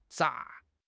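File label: "咋", Mandarin Chinese; HSK6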